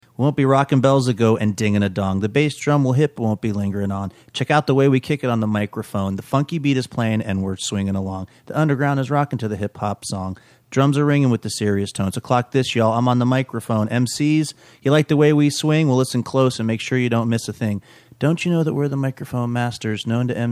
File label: reciting